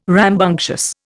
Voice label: "rambunctious", Indian

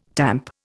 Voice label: "tamp", Indian

